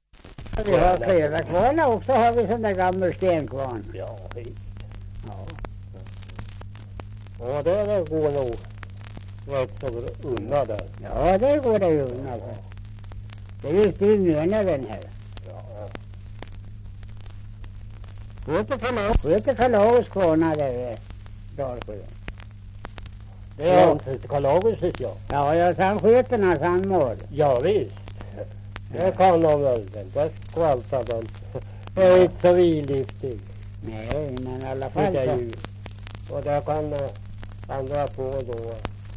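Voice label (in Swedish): Björnlunda Södermanland, dialekter, Svenska sagor; Folkminnen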